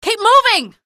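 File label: citizen buddy killed, female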